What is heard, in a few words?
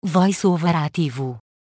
VoiceOver On, pt PT; EFI Audio